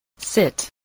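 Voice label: "sit", spoken word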